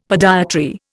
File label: "podiatry", Indian; Dictionary